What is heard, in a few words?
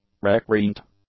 "recreant", English; adjectives